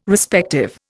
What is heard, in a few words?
"Respective", Indian